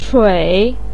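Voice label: "chui" third tone, Mandarin Chinese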